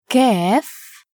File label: "kaf", Arabic letter